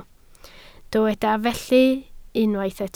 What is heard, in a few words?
"felly", Welsh